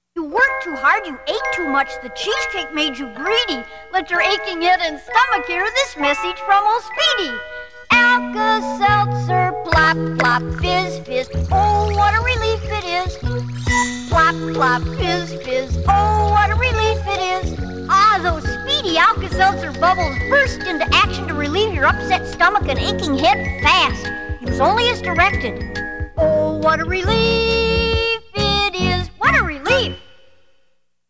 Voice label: TV Commercials; Alka Seltzer (Plop Plop Fizz Fizz)